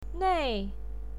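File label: "nei" fourth tone, pinyin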